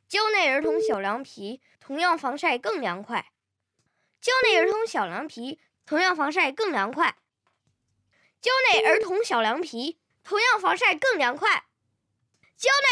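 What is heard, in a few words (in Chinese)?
男大音-真童-儿童小凉皮; 蕉内; 真童配音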